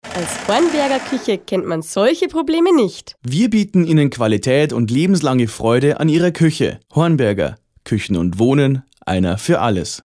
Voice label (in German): Werbung Reminder; Hornberger